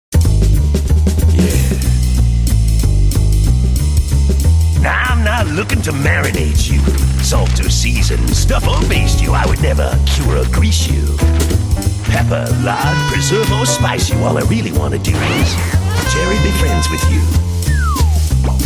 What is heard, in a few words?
US English; Cartoon Network